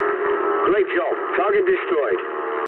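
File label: English bomb success mood low; War Thunder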